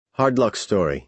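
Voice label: "hard-luck story", American English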